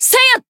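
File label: Voice attack, Japanese; milla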